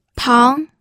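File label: "pang", Mandarin Chinese, second tone; pinyin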